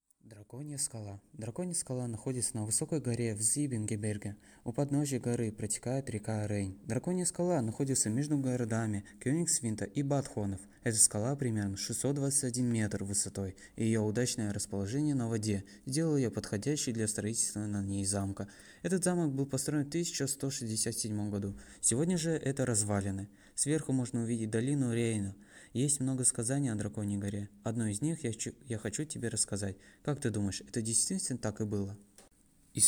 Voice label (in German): Drachenfels russisch komplett